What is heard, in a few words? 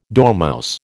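"dormouse", American English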